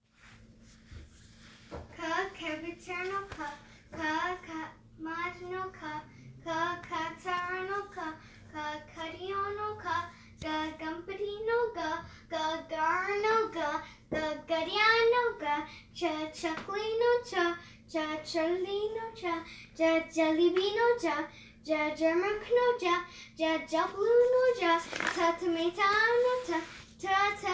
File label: Gujarati Alphabets With Words Spoken